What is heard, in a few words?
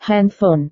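"handphone", Indonesian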